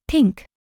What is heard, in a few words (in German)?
pink, niemieckim; kolory w jezyku niemieckim